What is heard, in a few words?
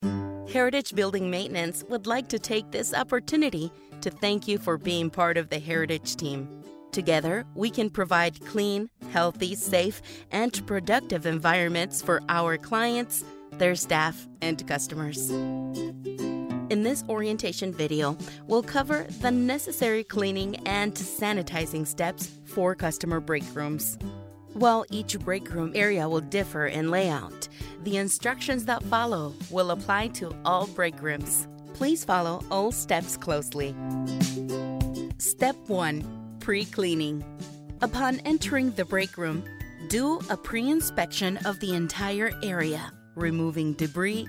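E learning English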